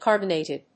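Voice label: "carbonated", English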